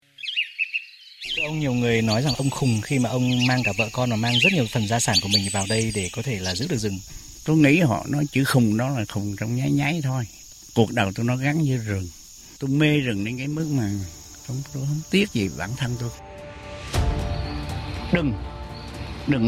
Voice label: radio news reporting; VOV.